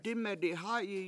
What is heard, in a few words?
"dIme7 dihA7yi7" I dumped my water, Washo